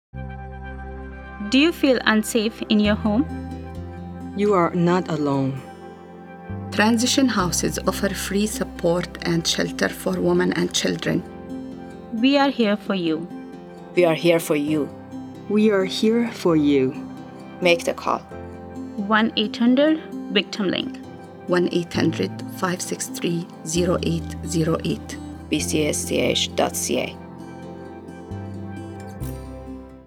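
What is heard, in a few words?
30sec RADIO PSA English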